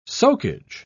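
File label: "soakage", British English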